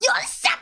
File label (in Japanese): よっしゃっ, 感嘆